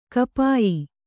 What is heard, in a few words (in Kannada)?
"ಗೋಪ್ಯ", Kannada